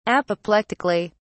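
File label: "apoplectically", British English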